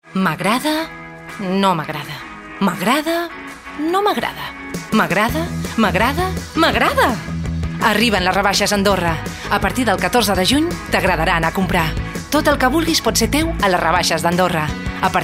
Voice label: female catalan sales comercial; ideasonora